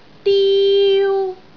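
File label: "diu1", Cantonese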